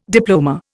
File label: "diploma", Indian